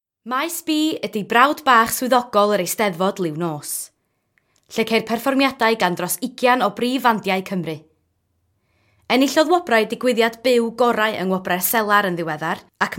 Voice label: Welsh